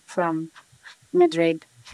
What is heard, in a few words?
Voice Acting, es, F0